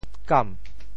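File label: "kam2", Teochew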